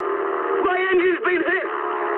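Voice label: English damaged engine mood high; speech; War Thunder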